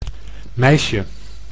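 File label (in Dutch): "meisje" Dutch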